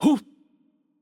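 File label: Italian, attack light; VO; bloom minigame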